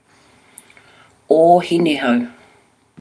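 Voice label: "ohinehou", Māori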